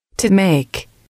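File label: to make, female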